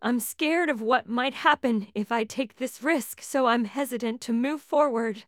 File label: Female Fearful; TTS Overview